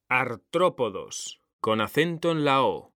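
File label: "artrópodos", Spanish, male; mekanta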